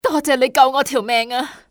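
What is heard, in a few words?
"thank", female; XBOX; civ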